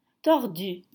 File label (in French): "Tordus", French